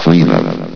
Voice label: "cleanup", vox; Source Engine; bshift